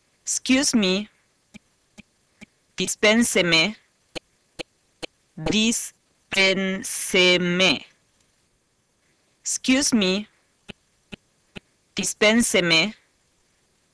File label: Spanish Excuse